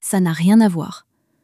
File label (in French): ça n'a rien à voir, common french words